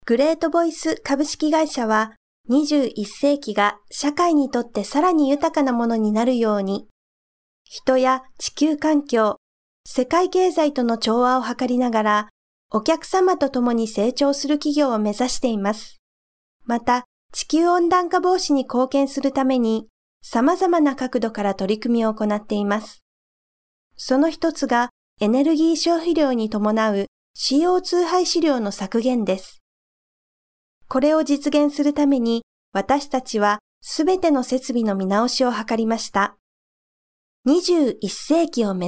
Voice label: Japanese